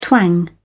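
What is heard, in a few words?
"twang", English, British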